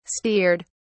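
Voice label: "steered", British English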